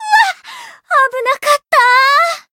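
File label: Voice win barely, Japanese; bivette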